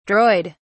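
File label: "droid", British English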